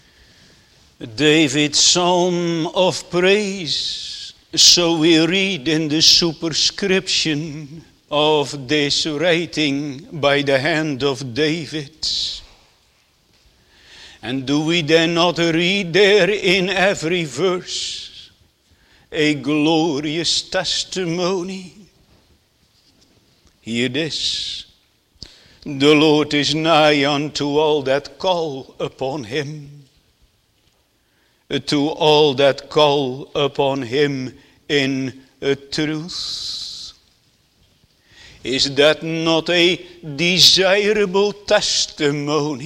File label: Sermon